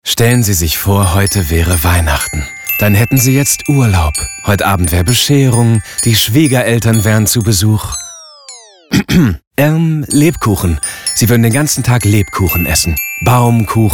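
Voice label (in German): werbung, sprecher; unicef; LOFT VOICES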